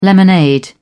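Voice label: "lemonade", British English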